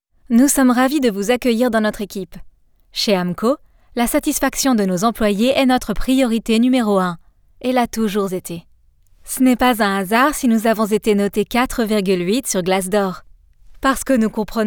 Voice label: CORPORATE French